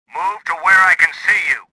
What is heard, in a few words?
suspicious